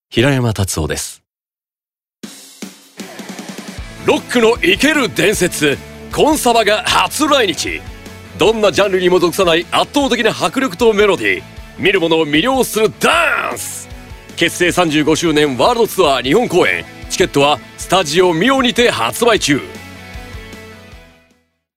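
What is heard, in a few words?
commercial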